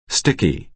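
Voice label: "sticky", American English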